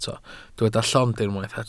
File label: "llond", Welsh